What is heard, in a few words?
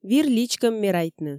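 Komi, female; вир личкӧм мерайтны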